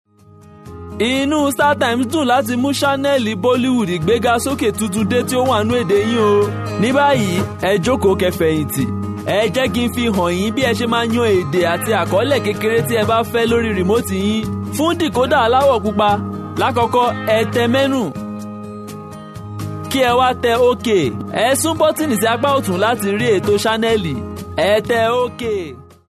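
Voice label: Yoruba, male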